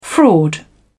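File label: "fraud", British English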